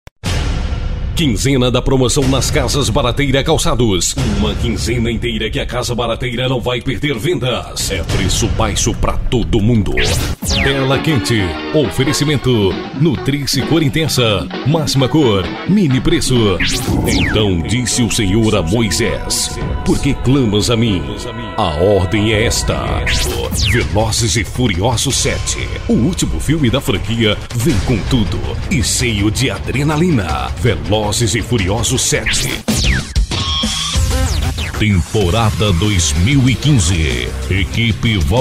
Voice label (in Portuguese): locutor produção de offs vinhetas spots comerciais